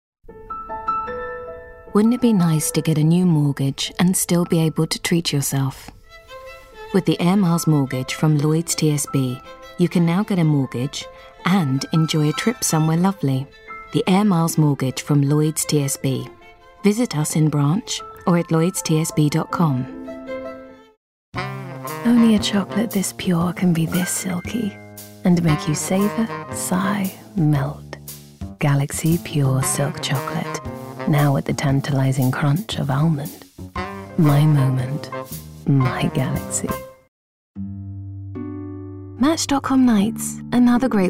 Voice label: commercial